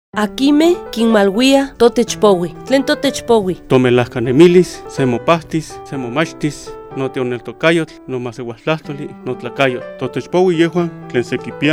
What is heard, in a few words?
Lengua Náhuatl de la Sierra de Zongolica